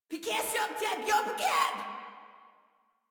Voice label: Attack Female; Warframe; Modular Corpus Female

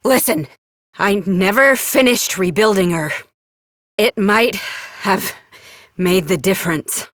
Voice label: Adult Op, en; Warframe; New War

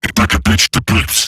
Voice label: Attack Robot Large; Dialog; Barks; Battle; en; Eximus Corpus; Corpus Robotic Large

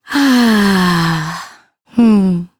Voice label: Ambient Female, en; Duviri

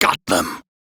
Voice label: Crew Gun Kill Fighter, Male, en; Warframe; Railjack Crew; Arbiters Hexis

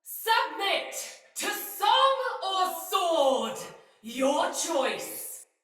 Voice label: See Player Dist Sinecure Soldier Female; Battle; Barks; Dialog

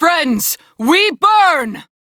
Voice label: Crew See Fire, Female; Dialog; en; Warframe; Railjack Crew; New Loka

